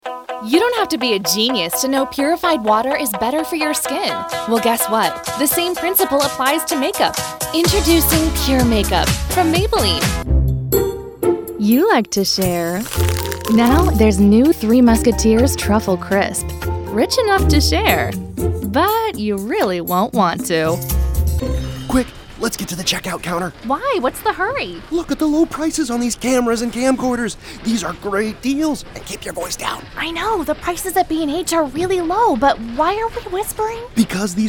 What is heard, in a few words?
Commercial